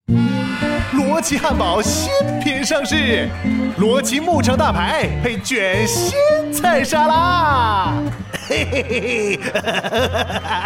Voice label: Mandarin Ad, 电台广告; 双点校园; 低端科技; RoachBurger